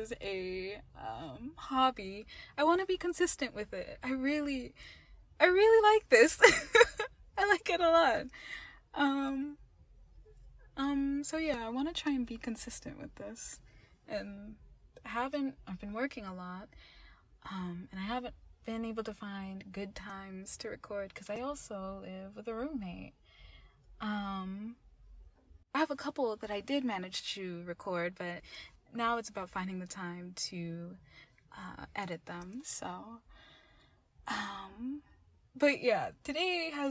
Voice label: F, Afterwork Ramble, Chaotic, Laughing, Code switching, Sirens; [Sound Warning]; [Weird Day]; [Race t alks() with Strangers]